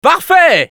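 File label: positive vocalization, French; "Parfait !"; Scout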